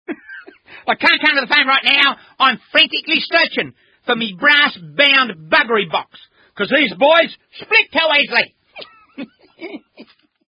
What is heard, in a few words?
Answering Machine Message